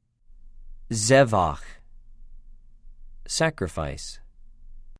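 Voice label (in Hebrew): "זֶ֫בַח", Hebrew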